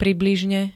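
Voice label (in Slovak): "približne", Slovak; nie celkom presne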